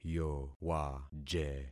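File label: "yowaje", concatenated syllables; Affixing experiment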